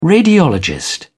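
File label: "radiologist", British English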